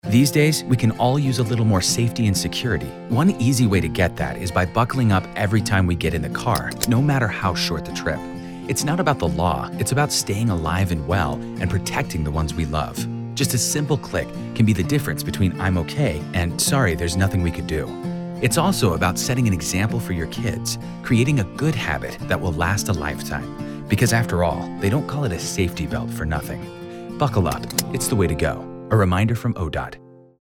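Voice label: Radio public service announcement; One Easy Way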